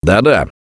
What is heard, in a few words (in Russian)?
Yes, left4dead2 russian, Survivor Voice; Gambler